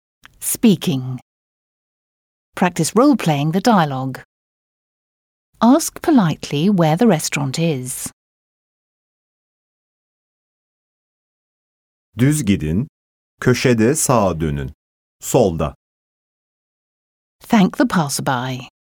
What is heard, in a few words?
Speaking, Turkish; Complete Turkish Teach Yourself